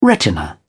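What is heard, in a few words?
"retina", English, British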